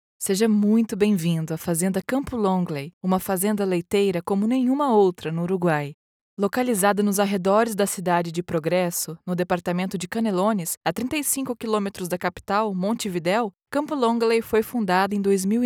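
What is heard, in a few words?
corporate documentary narrator warm welcoming no background female brazilian; longleyfarm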